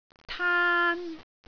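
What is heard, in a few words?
"taan1", Cantonese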